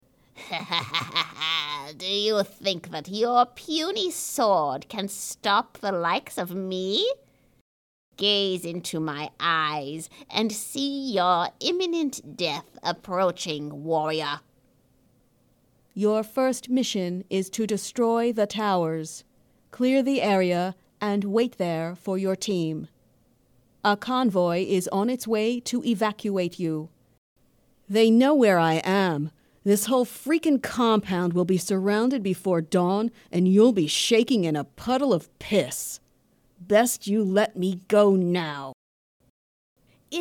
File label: Game voices